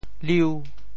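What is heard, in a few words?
"liu5", Teochew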